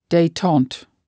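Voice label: "detente", English word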